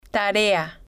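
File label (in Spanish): "tarea", Spanish, female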